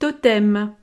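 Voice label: "totem", French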